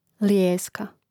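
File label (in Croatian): "lijeska", Croatian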